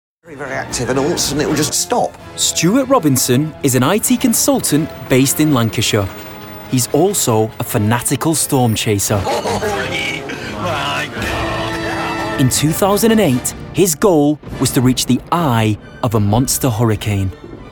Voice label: narrative, 30s